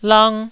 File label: "lang1", Taiwanese syllable